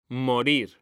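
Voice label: "morir", Spanish; mekanta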